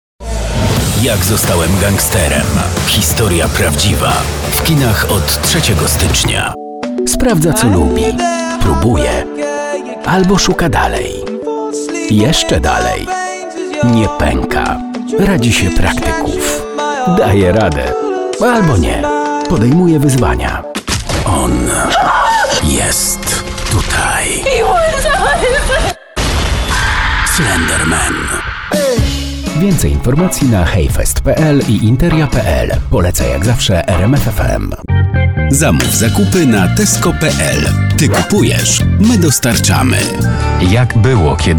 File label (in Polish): Lektor, Studio; Studio Nonagram